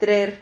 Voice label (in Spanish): "trer", Silabas